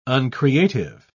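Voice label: "uncreative", British English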